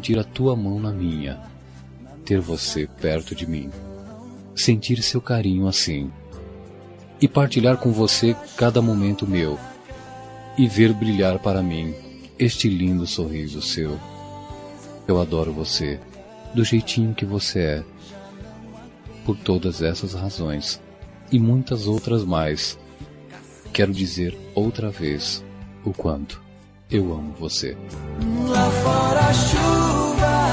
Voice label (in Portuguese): Romântica Como e o Amor